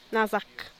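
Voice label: "nazak", zapotec; lucky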